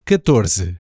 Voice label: "catorze", Portuguese; numbers in portuguese

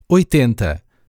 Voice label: "oitenta", Portuguese; numbers in portuguese

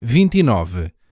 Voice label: "vinte e nove", Portuguese; numbers in portuguese

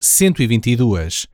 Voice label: "cento e vinte e duas", Portuguese; numbers in portuguese

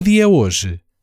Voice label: "que dia é hoje", Portuguese; numbers in portuguese

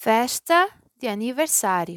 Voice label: "Festa de aniversario", Portuguese; happy birthday in portuguese

